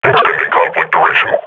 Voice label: combat idle, grunt; Half Life Model Pack